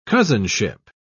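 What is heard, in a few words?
"cousinship", British English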